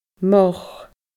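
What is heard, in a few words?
"moch", Scottish Gaelic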